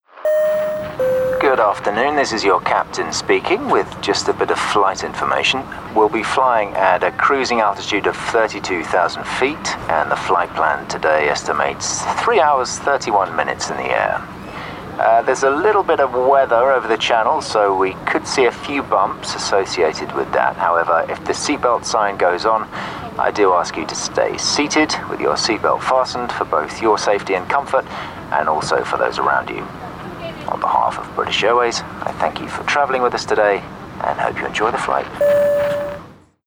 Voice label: Pilot, Natural, Conversational, Warm, Friendly, Pilot, RP ('Received Pronunciation')